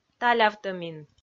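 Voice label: "талявтӧмин", Komi, female; audio dict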